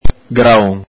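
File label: "graon", Venetian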